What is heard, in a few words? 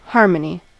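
"harmony", American English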